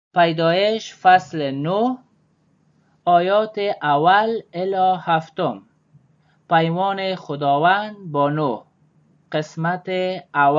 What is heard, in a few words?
dari, drama; dari gnn nt drama; genesis 9 1-9 changes after flood